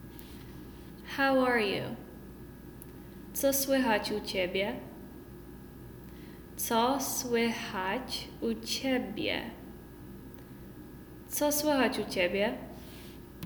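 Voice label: Polish How are you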